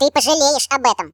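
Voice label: You'll regret that, Russian; hedgewars; Default ru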